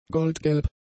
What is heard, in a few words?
"goldgelb", German; adjectives